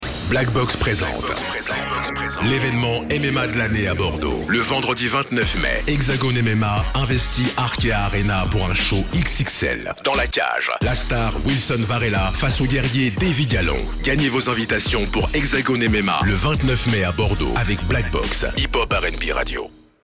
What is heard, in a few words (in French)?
Pub TV Web Radio FR; Blackbox FM; Hexagone; MMA